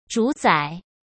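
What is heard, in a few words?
"主宰", Mandarin Chinese; chinese-flashcards; hsk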